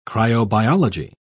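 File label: "cryobiology", British English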